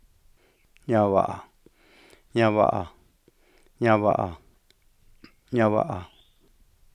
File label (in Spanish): palabras, mixtec; Yoloxochitl; Diccionarios elicitados; Cuanacaxtitlan